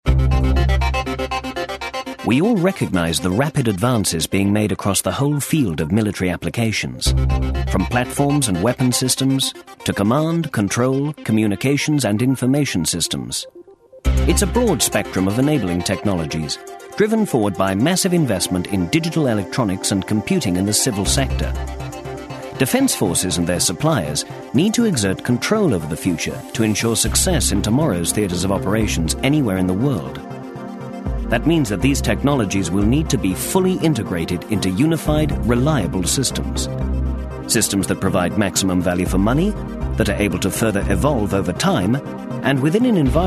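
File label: female, English, corporate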